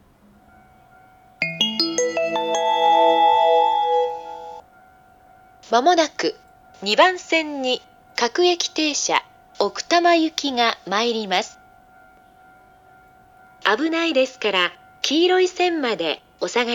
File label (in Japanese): 接近放送, ATOSタイプF(女声), 青梅線, 簡易英語追加前の放送です。; 青梅 奥多摩 方面; 各停奥多摩行 10両 後6両青梅止; 牛浜; スピーカー JVC横長型